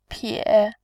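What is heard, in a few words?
"pie", Mandarin, tone 3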